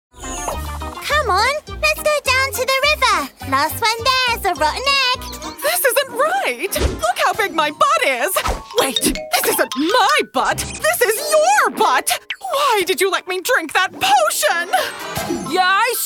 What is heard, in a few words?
Animation